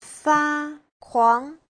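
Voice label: "fākuáng", Mandarin Chinese; 发狂; phát cuồng, cuồng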